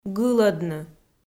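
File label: "гылӧдны", Komi, female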